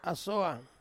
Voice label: "asoang", Ansus